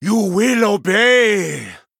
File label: Male Troll Attack